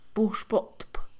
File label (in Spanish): ritual, Mixe, Mujer; Religión; INALI